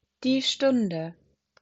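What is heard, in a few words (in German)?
"Stunde", German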